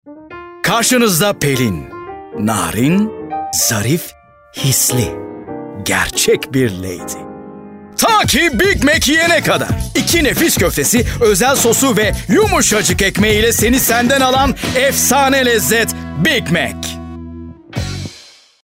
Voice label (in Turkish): türkçe seslendirme